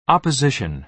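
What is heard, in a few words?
"opposition", American English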